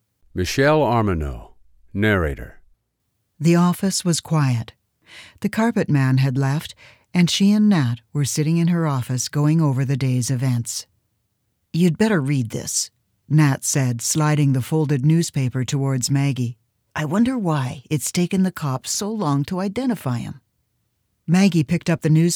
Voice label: Mystery Audiobook